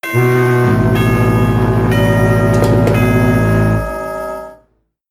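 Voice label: ship announcement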